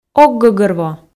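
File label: "ог гӧгӧрво", Komi, female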